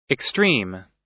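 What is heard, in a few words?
"extreme", American English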